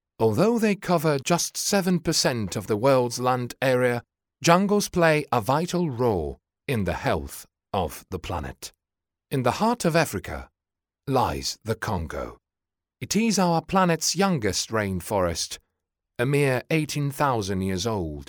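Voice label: Italian male voice over, English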